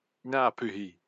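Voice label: "ngapuhi", Māori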